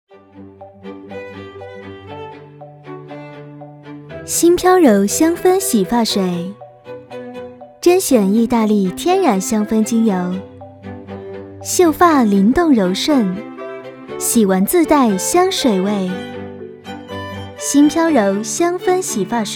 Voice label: chinese mandarin; voice actor